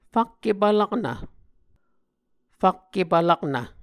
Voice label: "fakke-palaknv", muscogee